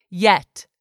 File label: "yet", English; 3000 words